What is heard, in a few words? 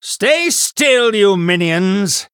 Male Human After Freezing